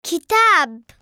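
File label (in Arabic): "كتاب", Arabic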